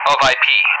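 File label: "of IP", English speech; DCS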